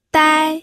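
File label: "dai", pinyin, first tone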